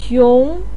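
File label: "xiong2", Mandarin Chinese, pinyin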